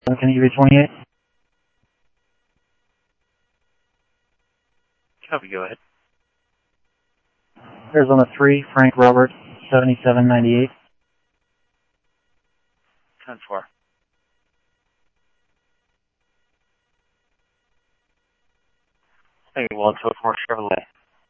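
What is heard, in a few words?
Mesa Police Department, scanner audio trunk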